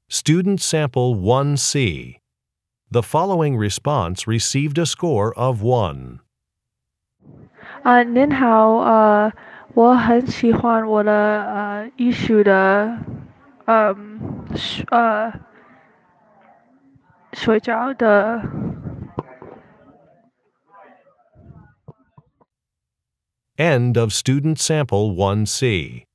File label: chinese conversation; Interpersonal Speaking Samples